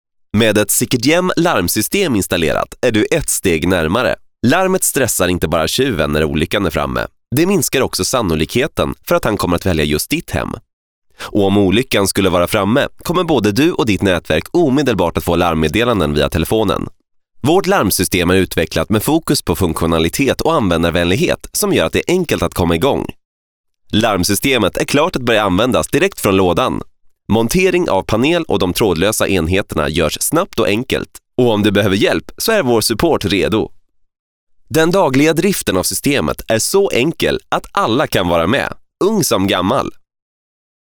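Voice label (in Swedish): swedish male standard; lektoring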